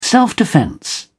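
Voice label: "self-defence", British English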